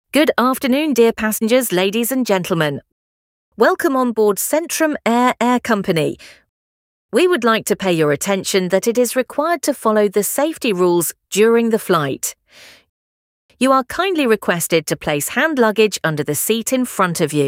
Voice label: en